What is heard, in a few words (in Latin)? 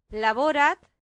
"laborat", latin; santillana